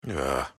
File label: Emote Negative; Atlas